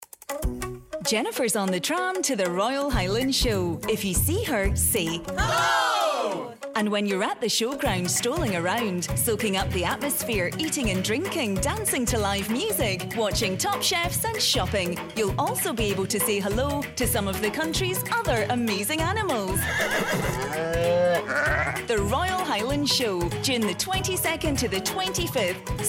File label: radio commercial; royal highland show 2017